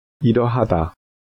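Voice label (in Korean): "이러하다", Korean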